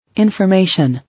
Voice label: "Information", English